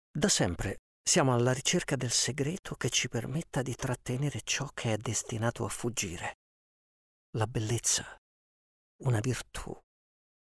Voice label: italian narration